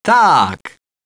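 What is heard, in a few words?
"yes", male; TALKNOW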